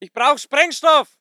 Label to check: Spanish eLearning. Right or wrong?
Wrong